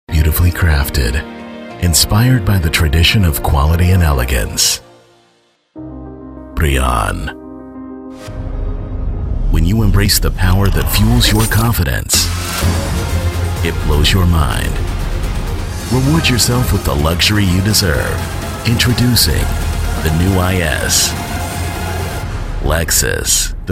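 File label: American, male; lektoring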